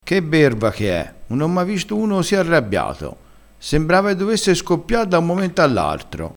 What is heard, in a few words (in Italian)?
"berva", crespinese